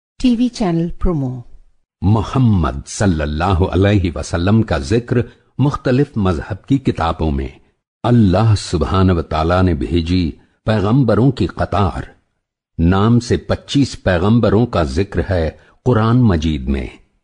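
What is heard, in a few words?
Urdu, male, various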